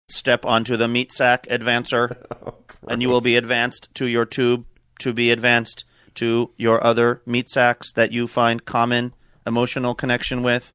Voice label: saying step on meat sack advancer robotic

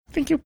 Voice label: cute thank you

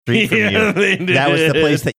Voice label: laughing saying yeah they did

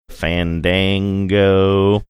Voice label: drawn out fandango

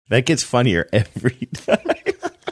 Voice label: saying that gets funnier every time laughing

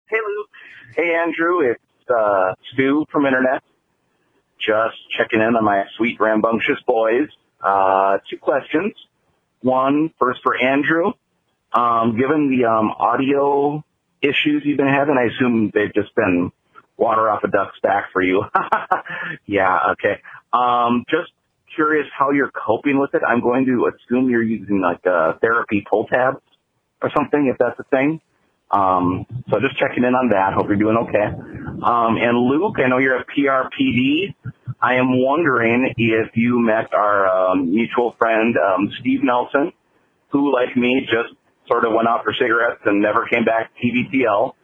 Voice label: voicemail message

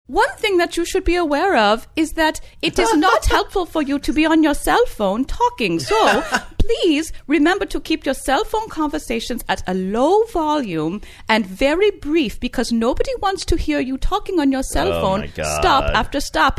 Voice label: speaking as bus driver with scandinavian accent

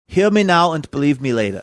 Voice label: hear me now believe me later some kind of accent

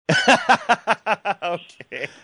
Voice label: laughing saying okay

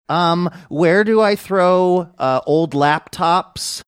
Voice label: funny; um where do i throw old laptops

